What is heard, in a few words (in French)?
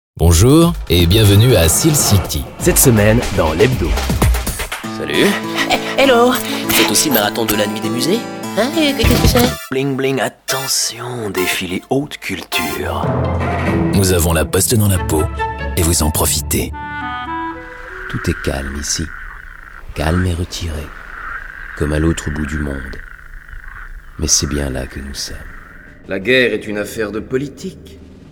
DEMOVOIX FR; sprecher; profi